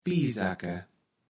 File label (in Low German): "piesacke", kaevels platt